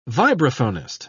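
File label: "vibraphonist", British English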